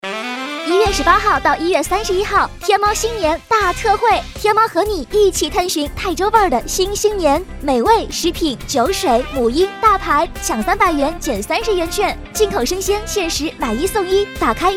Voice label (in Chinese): 广告配音; 天猫; 普通话